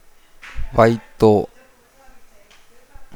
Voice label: "waterfall", Nukuoro